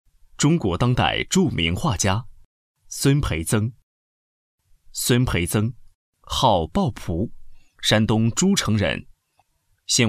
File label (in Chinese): 男21 人物宣传-画家（正式）